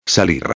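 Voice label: "salir", Spanish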